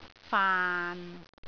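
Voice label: "faan6", Cantonese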